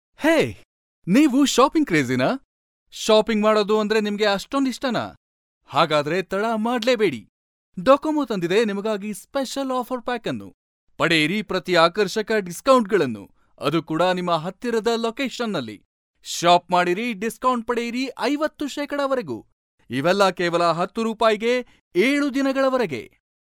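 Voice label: Kannada, male, voice over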